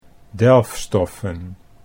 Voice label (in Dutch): "delfstoffen" Dutch